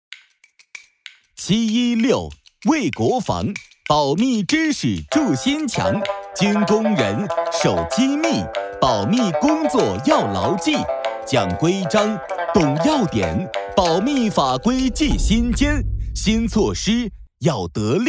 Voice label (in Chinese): 男 快板 快板节奏, 年轻全能 轻松活泼; 保密法律